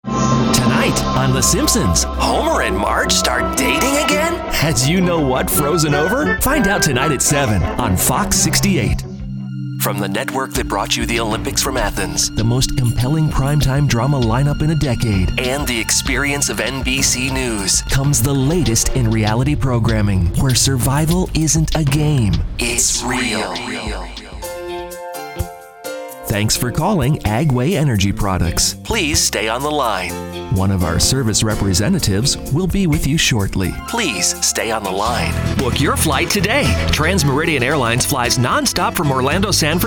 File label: Male-30's-50's, Youthful, energetic presentation; Combo Imaging/Commercial Demo